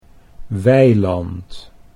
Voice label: "weiland", Dutch